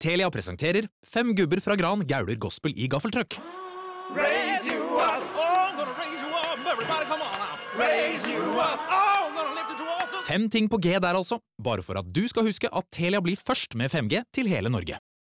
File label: radio 5G Gospel; Telia; 5G Gaule